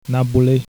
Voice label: fine, haitian creole